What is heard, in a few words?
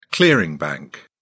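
"clearing bank", English, uk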